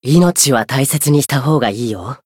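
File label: Voice encount Japanese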